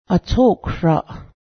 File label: hoe, Cayuga; ajókdo̱hsraˀ